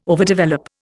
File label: "overdevelop", Indian